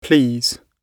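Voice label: "please", British English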